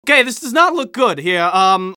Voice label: scout negative vocalization; tf2